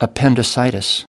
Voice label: "appendicitis", us

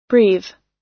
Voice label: "breathe" US

